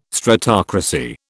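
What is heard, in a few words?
"stratocracy", British English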